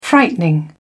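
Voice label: "frightening", British English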